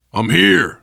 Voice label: im with you, voice, biker; Left 4 Dead 2; survivor

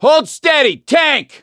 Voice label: heard hulk, voice; gambler

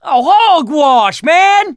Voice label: reaction negative, survivor voice mechanic

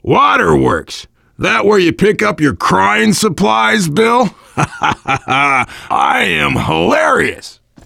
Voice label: waterworks, voice, biker; Left 4 Dead 2; left4dead2 dlc3; survivor

